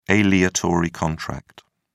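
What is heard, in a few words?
"aleatory contract", British English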